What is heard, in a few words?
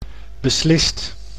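"beslist", Dutch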